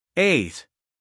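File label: "ache", American English, male; everyone-can-use-english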